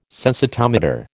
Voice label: "sensitometer", American